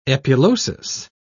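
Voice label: "epulosis", British English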